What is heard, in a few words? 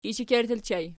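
"ishekerttur" ergative plural, Archi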